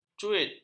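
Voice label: "cuit", Malay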